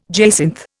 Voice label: "jacinth", Indian

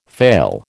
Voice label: "fail", American

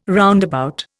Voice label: "roundabout", Indian

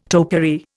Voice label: "topiary", Indian

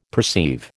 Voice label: "perceive", American

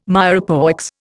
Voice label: "mirepoix", Indian

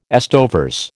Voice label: "estovers", American

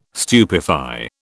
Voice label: "stupefy", British

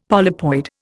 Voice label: "polypoid", Indian; Dictionary

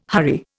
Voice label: "hurry", Indian

